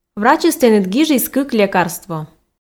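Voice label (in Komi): "врачыс тэныд гижис кык лекарство", Komi, female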